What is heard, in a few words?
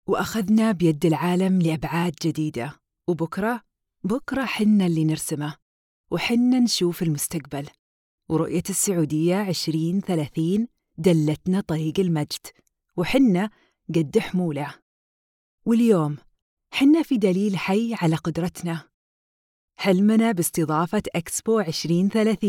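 Arabic, Saudi Arabia, female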